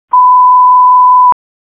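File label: Police Southwest District Dispatch; Raleigh; wake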